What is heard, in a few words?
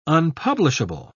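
"unpublishable", British English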